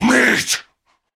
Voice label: Cannibal Male Taunt; Trunk Act One Monster

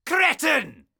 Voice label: Caster Elite Alert Line, dialogue

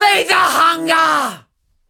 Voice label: Female Taunt, Cannibal, dialogue; Trunk Act One Monster

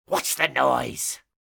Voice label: Pirate Elite Male Patrol Line; NPC; Heist Thug Captain Patrol

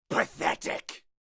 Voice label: Melee Miniboss Mid Combat Line; NPC; Heist Military Mid Combat

